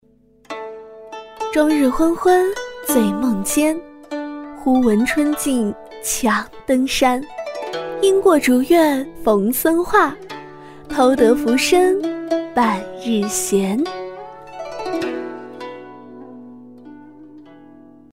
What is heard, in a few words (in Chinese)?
女 诗歌朗诵